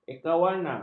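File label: "ekawarna", Malay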